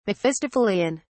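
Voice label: "mephistophelean", British English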